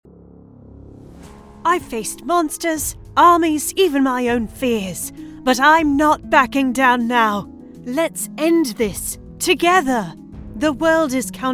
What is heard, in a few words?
Heroine British, voiceover